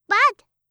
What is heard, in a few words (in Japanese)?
"bad", 音ゲー風